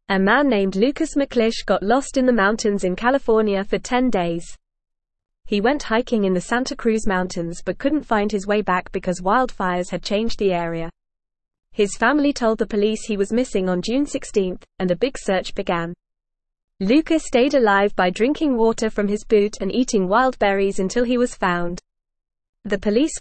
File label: English Lower Intermediate FAST Reading; Lost Hiker Survives in Mountains with Help